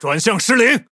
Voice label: Speech Defective Modules Wheel Damaged, ZH CN